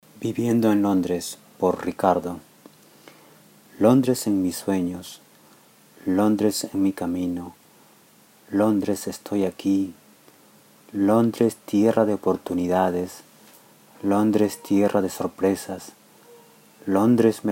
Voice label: Living in London English

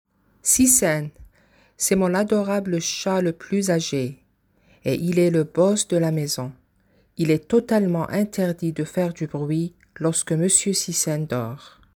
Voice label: French

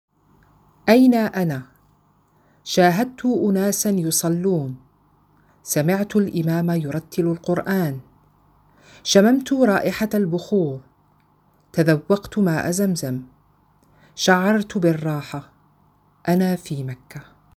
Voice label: Arabic; Where am I

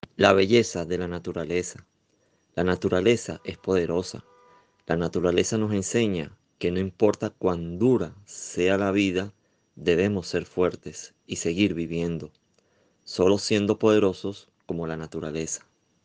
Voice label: Poema SPA

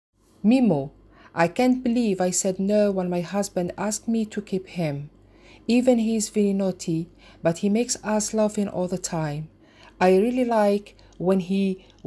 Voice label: English

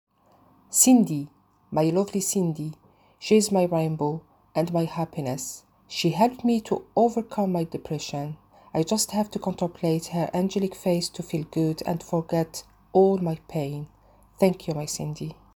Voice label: English

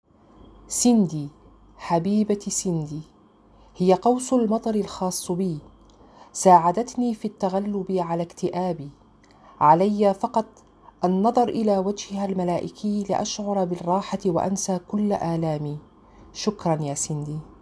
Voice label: Arabic

